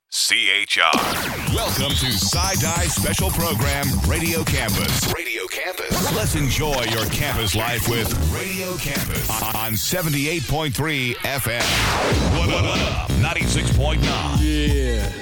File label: Imaging Demo; CHR